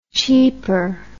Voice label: "cheeper", English

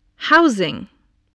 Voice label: "housing", voice spelling

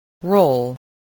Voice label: "roll", English spelling

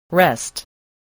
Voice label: "rest", English

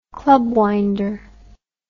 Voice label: "club winder", English

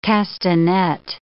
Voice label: "castanet", English

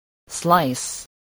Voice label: "slice", voice, spelling

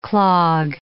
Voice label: "clog", English

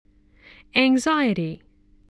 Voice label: "anxiety", spelling

